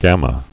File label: "gamma", Greek alphabet